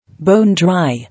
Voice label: "Bone-dry", English